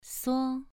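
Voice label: "suo" Mandarin Chinese, second tone, pronunciation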